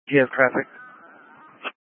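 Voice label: Incident Tac 2, Scanner audio recording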